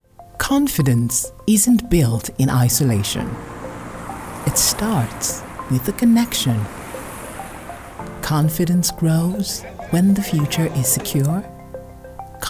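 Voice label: English, African, female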